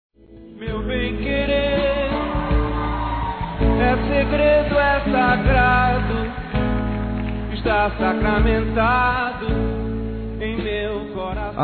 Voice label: IRMÃ DISTANTE M

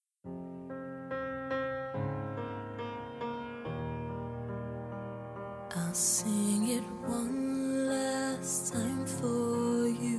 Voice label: Romantica Feminino; Telemensagens 2017; Saudades

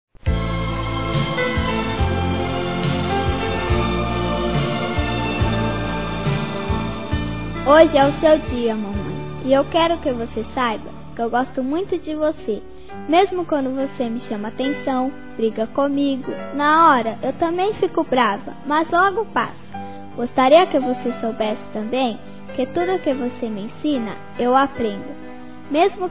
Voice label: Dia Das Mães Para Mãe Feminino, Telemensagens; Telemensagens 2017

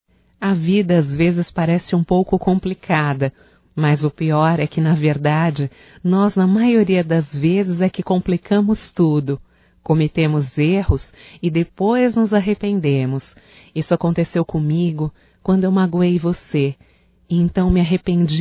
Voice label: AGRADECIMENTO POR ACEITAR AS DESCULPAS (F), Só Voz; Telemensagens; AGRADECIMENTOS

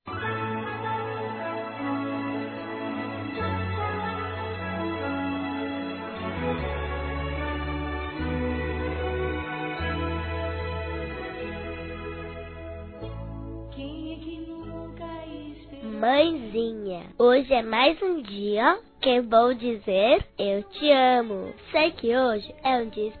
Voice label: GERAL INFANTIL F; Telemensagens 2017

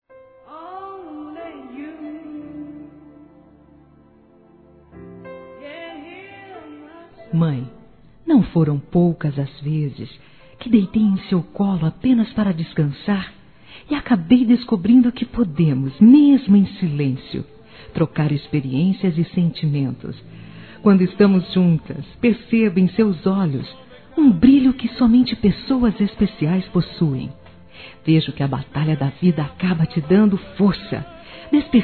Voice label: MÃE F, Telemensagens, Dia das Mães; Telemensagens 2017

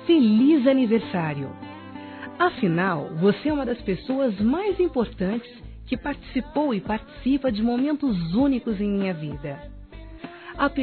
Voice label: IRMÃ (F)

